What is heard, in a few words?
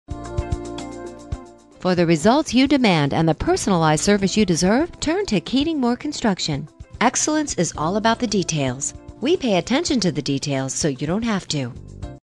on hold, real estate; Keating Moore Construction